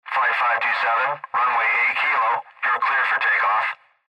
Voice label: Airtraffic Control Takeoff